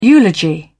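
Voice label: "eulogy", British English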